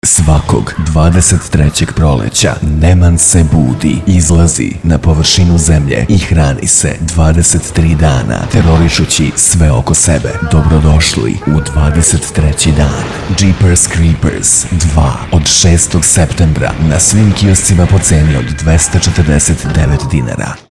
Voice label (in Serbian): radio reklama; Jeepers creepers 2